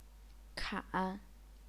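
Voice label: "kan", Chinese, third tone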